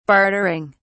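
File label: "bartering", British English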